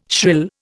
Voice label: "shrill", Indian